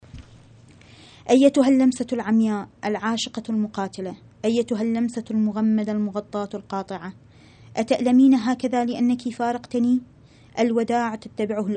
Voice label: arabic